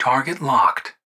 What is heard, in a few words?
Target locked male